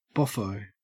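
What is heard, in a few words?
"boffo", Australian English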